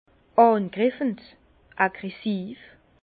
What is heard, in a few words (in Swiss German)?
"angriffend", Bas Rhin; vin; agressif